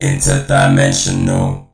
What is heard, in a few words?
robot interdimensional